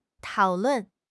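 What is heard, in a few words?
"tao3 lun4", Chinese; HSK4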